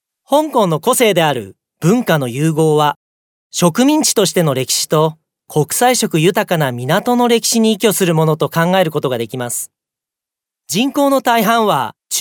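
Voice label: Japanese male corporate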